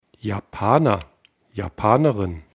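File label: "japaner", German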